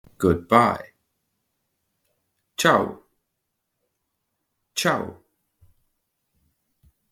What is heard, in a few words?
Good bye, estonian; the talking estonian phrasebook